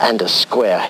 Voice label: LoFi Words, LoFi Vocals